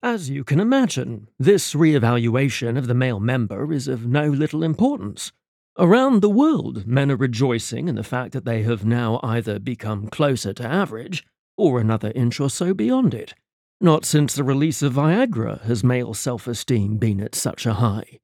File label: Contemporary Brit